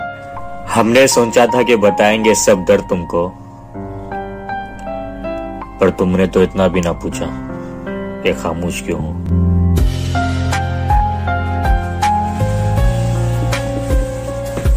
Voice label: Sad Shayari Ringtone